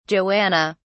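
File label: "joanna", British English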